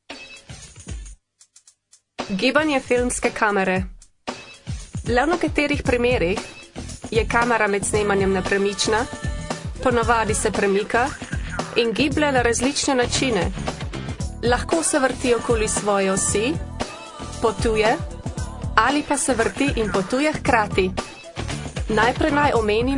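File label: Slovenian, female, explainer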